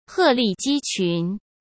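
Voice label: "鹤立鸡群", Mandarin Chinese; hsk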